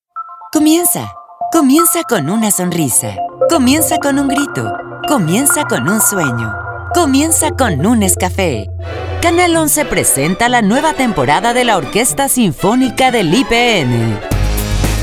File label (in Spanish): Comercial